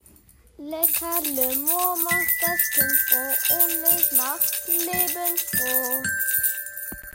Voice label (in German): Radio Werbung; radio werbung vom jahrgang 3 an der gss